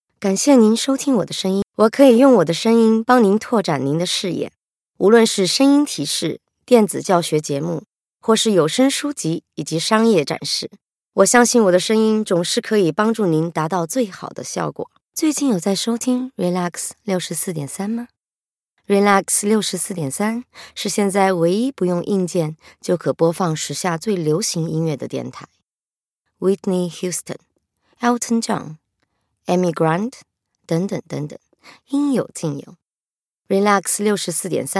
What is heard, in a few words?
General Mandarin; GoLocalise